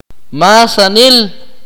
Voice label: "all", Akateko